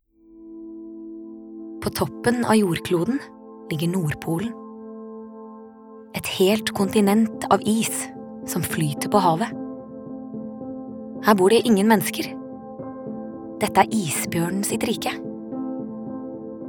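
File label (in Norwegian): dokumentar, Norwegian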